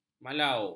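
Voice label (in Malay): "malau", Malay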